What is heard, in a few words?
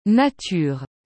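"nature", French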